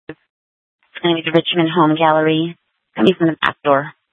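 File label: Police Department North Dispatch, scanner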